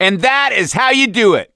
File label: hurrah, survivor voice; gambler